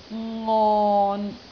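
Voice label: "ngon6", Cantonese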